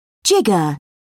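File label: "jigger", British English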